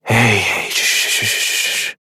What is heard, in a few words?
Horse Calm Adult; Duviri